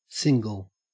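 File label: "single" English, Australian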